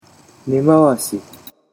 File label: "nemawashi", Japanese